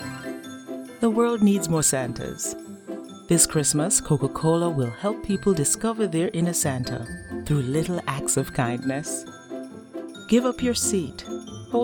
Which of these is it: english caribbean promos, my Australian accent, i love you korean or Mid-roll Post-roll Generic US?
english caribbean promos